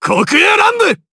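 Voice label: Vox Skill, Japanese; Kibera; kingsraid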